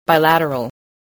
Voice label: "bilateral", English